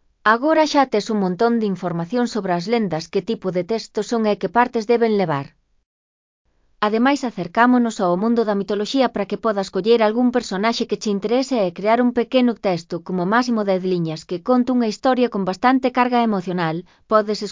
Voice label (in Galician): mini narracion